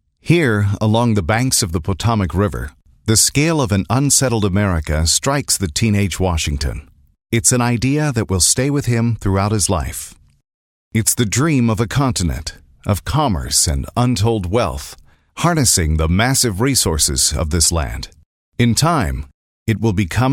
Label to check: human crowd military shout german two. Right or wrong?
Wrong